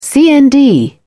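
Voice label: "cnd", English, British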